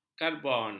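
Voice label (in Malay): "karbon", Malay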